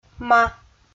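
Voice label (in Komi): "ма", Komi-Zyrian, female; kpv audio dict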